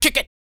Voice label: male spoken; R&B Dance